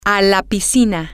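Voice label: to the pool, Spanish, female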